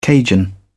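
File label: "cajun", English, British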